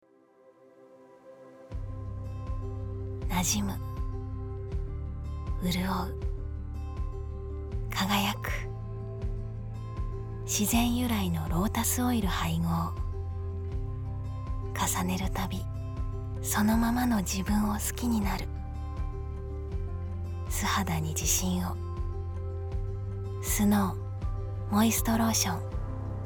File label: narration woman; CM